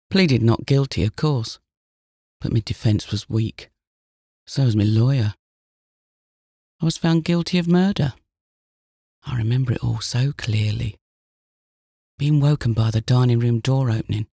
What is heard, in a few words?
character accent example